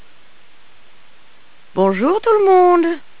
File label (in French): francais; voyager